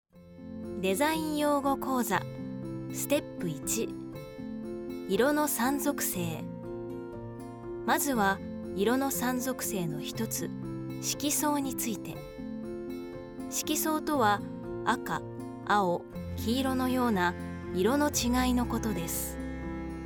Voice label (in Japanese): しっとり、落ち着き, 女性ナレーター